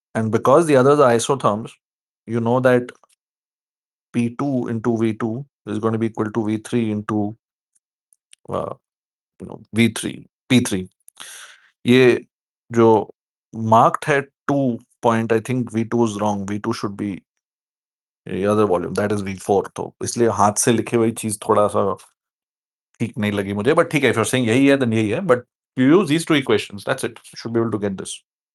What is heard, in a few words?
voice message